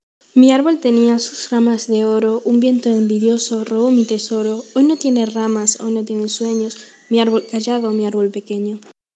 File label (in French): Espagnol